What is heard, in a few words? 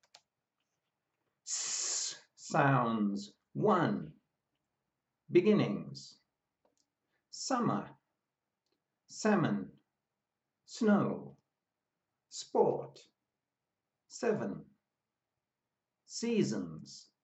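S sounds, pronunciation of australian english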